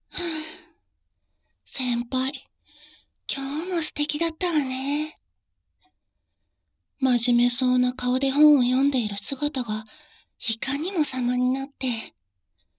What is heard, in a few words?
voice Only, ASMR